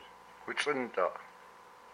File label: "my forehead", hupa